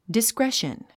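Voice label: "discretion", English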